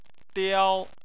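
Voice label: "diao" first tone, Chinese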